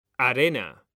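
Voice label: "arena", Spanish, male; mekanta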